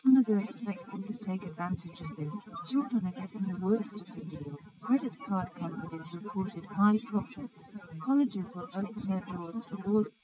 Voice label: female, 130ms, 50cm; SiSEC11; underdetermined